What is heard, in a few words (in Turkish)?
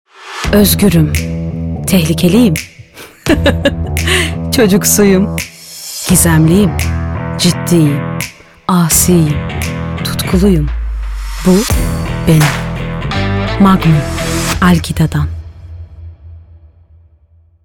reklam; magnum